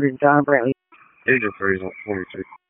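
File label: Public Safety Tactical, p25; wake p25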